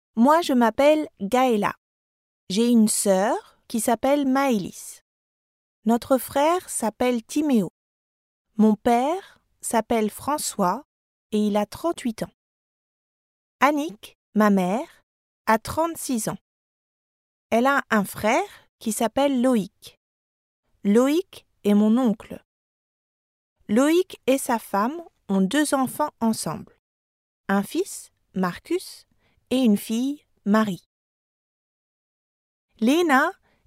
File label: learning program